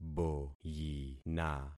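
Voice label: "boyina", concatenated syllables; Affixing experiment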